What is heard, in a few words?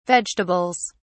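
"vegetables", British English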